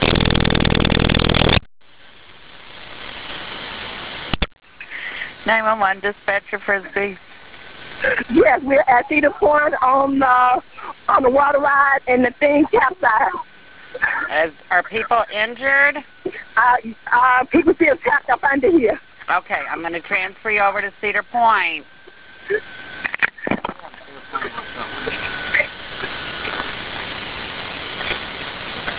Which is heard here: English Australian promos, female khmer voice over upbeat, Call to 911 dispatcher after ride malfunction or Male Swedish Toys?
Call to 911 dispatcher after ride malfunction